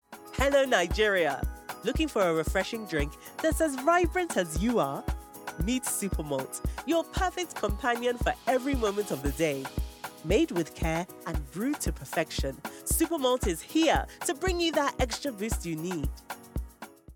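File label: English, Nigerian, female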